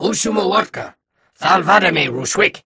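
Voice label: Grunt look long time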